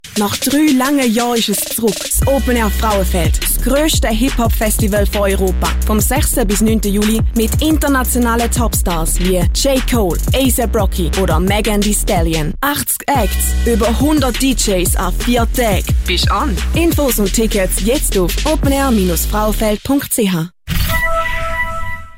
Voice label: Radiospot; Openair-Frauenfeld-2022; EnergyStGallen